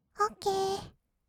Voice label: "オッケー", kawaii voice; ASMR; 1200 kawaii voice!!; 音ゲー

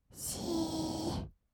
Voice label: "しーっ", kawaii voice; ASMR; 色々なワンショット; 1200 kawaii voice!!

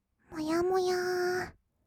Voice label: "もやもやー", kawaii voice; 1200 kawaii voice!!; 色々なワンショット

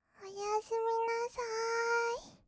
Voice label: "おやすみなさい", kawaii voice; 挨拶

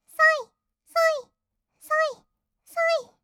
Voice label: そいそい, kawaii voice; 合いの手; 1200 kawaii voice!!; ASMR

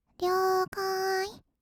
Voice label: "りょーかーい", kawaii voice; 1200 kawaii voice!!; 色々なワンショット

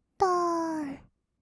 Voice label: "どーん", kawaii voice; 1200 kawaii voice!!; 色々なワンショット

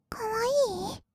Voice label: かわいい, kawaii voice; ASMR; 色々なワンショット

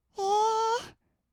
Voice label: "えー", kawaii voice; ASMR; 色々なワンショット; 1200 kawaii voice!!

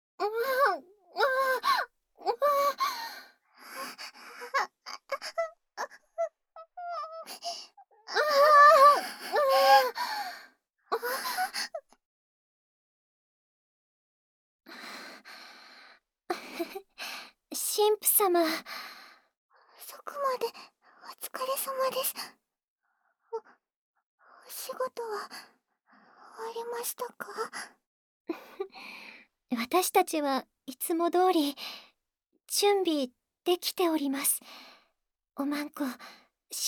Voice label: ボイスオンリー, ASMR; 最後の、お勤めを果たさせて頂きます

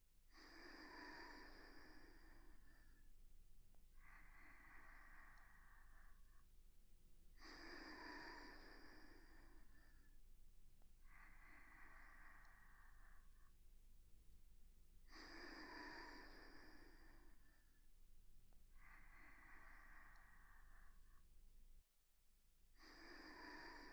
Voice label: 安眠ボイス

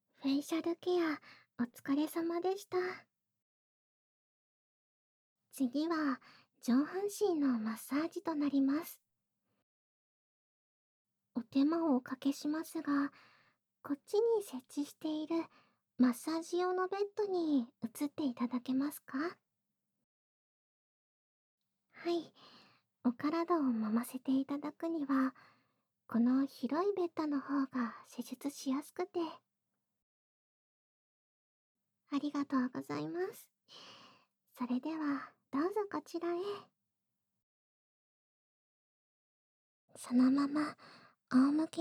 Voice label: 上半身マッサージ＆耳舐めのオイルでお耳ケア（効果音なし）

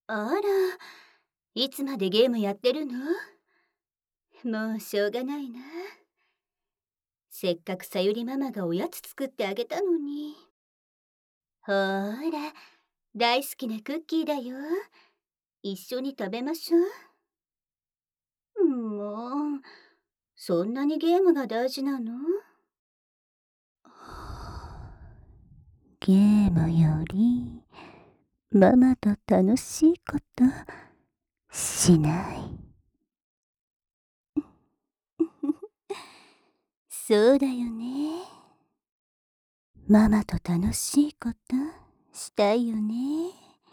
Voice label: 優しいママの耳舐めと耳元囁き乳首責め手コキ, ASMR

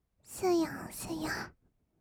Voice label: すやすや, kawaii voice; ASMR; 色々なワンショット

